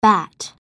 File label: "bat", en; halloween